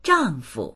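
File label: "zhang4fu", Mandarin Chinese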